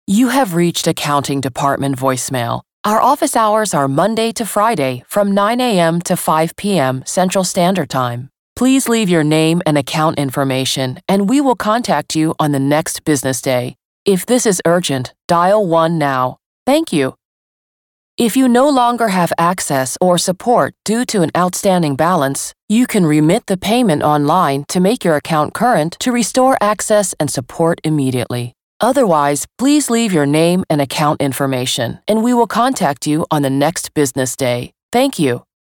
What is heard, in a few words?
ivr